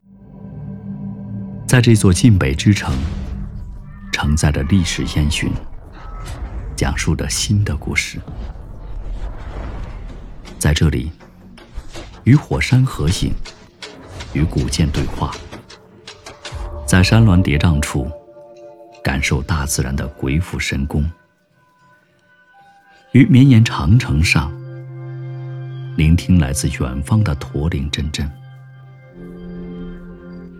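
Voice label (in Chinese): 专题（自然讲述）; 城市大同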